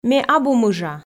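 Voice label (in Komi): ме абу мыжа, Komi, female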